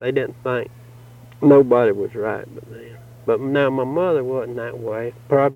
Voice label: Interview with 65 year old white male Mountain Rest South Carolina; american english dialect